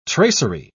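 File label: "tracery", British English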